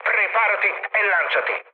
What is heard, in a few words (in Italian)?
bailout, mood med, speech it; War Thunder